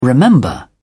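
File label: "remember", British English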